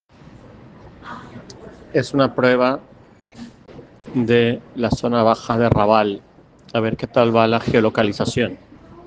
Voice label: Voice message